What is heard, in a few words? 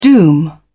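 "doom", British English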